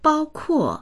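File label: "bao1kuo4", Chinese; 包括; bāokuò; 含む、包含する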